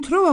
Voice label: "trova", sud; elle (/il) trouve

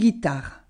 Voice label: “ghitarra”, nord et sud

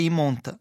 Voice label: "monta i", Rocca (sud); les montagnes

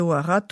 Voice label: “barattu”, Moita Verde (nord)

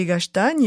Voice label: "i castagni", Moita Verde (nord)

